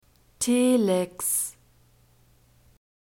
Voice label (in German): "telex", German; alphabet